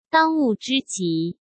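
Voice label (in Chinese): "当务之急", Mandarin; chinese-flashcards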